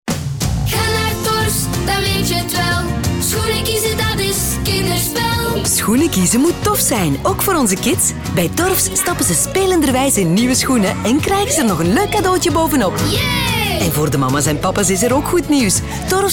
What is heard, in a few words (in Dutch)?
dynamisch; Torfs